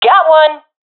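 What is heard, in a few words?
i killed enemy, professional female; vo; Counter Strike Model Pack; CS:GO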